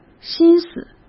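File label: "心思" Mandarin Chinese; hsk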